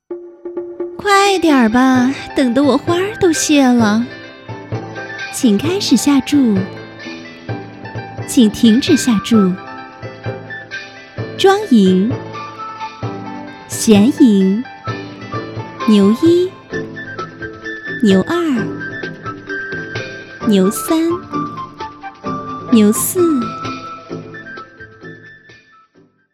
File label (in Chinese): 棋牌游戏性感